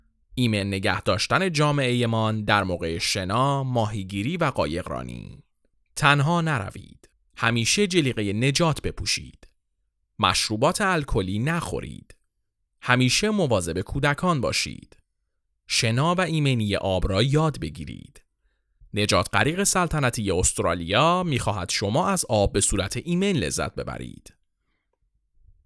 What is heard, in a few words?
Farsi Radio; Water Safety Community Announcement; Royal Life Saving Australia